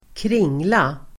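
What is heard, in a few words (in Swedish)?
"kringla", Swedish; kringla substantiv, pretzel; Uttal: [²kr'ing:la]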